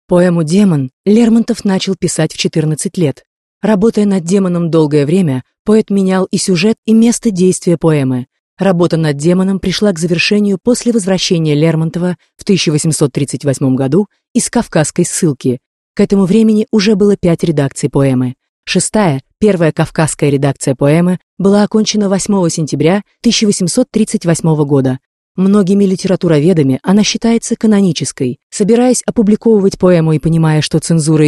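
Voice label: Russian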